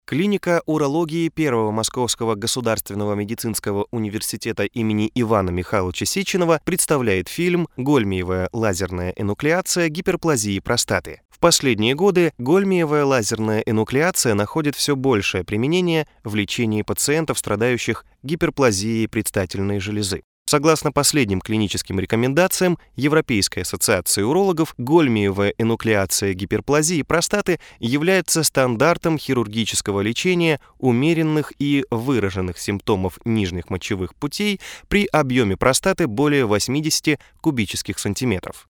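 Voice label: story, Russian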